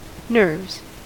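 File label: "nerves", English, American accent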